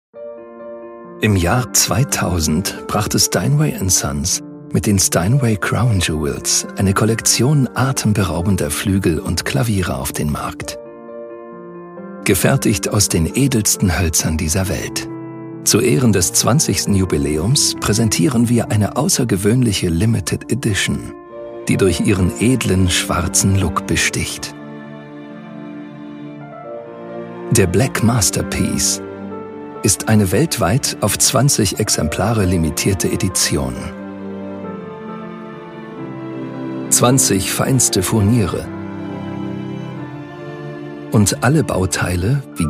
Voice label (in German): Imagefilm, DE; voice actor; voiceover; german; Steinway & Sons